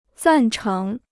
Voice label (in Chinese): "赞成", Chinese